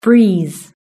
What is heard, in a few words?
"breeze", British English